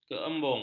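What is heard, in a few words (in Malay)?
"keembung", Malay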